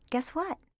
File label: "guess what", voices